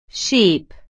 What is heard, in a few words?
"sheep", inglés